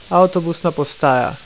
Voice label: "Avtobusna postaja", Slovenian

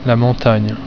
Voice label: "Montagne", french

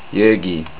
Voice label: River, estonian

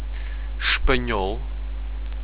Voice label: "espanhol", Portuguese; Spanish

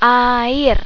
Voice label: water, indonesian

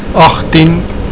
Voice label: "agtien", afrikaans

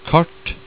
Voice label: "kart", Norwegian

